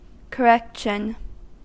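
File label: "correction", American English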